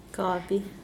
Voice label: "coffee", Mokilese

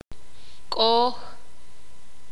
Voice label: sakapulteko

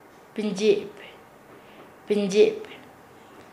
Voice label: "cara a cara", Nasa Yuwe; paez

